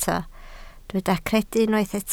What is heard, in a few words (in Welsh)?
"credu", Welsh